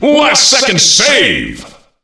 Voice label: Last Second Save, Announcer Voice Lines; Unreal Tournament; ut2003